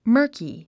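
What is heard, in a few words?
"murky", English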